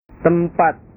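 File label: "tempat", Indonesian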